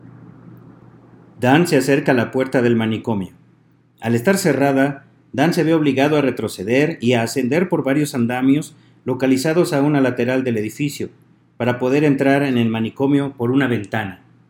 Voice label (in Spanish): narrador fuera del manicomio